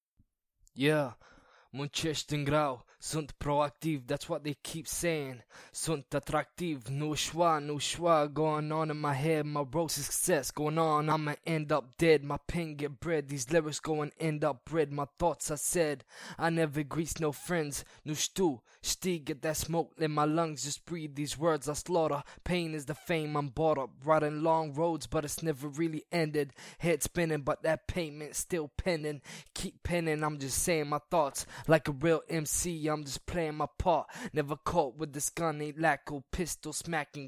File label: Acapellas, 105BPM Key Em